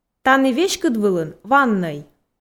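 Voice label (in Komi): "тані веськыдвылын ваннӧй", Komi, female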